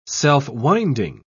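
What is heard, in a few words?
"self-winding", British English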